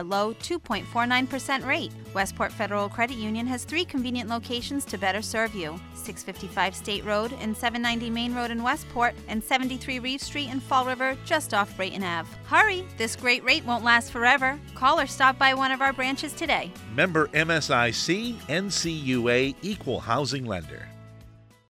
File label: Winter Car Loans, radio ads; Westport Federal Credit Union